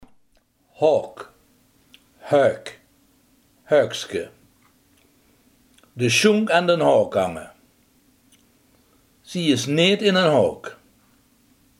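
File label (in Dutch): haak, Limburgse gesproken woordenboeken; haak, haok (häök, häökske); De sjónk aan d’n haok hange.; Zie is neet innen haok.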